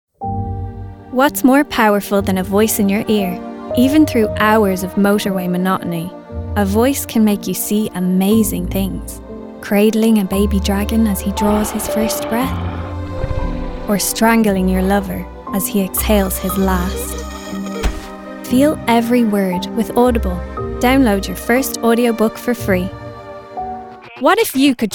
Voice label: Commercial